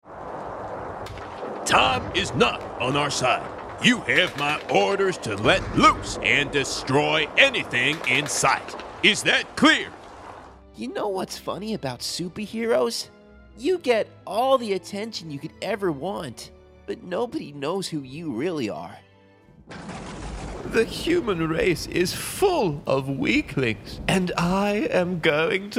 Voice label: animation reel

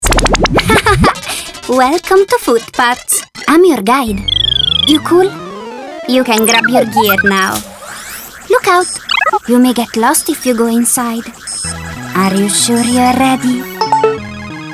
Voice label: English animation; VOICEFOX

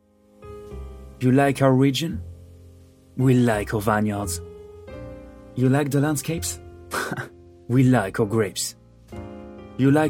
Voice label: English French accent voice; VOICRFOX

